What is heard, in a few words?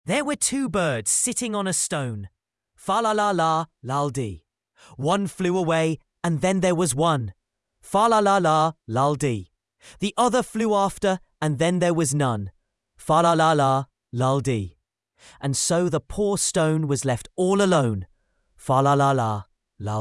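male British, classic rhymes; There Were Two Birds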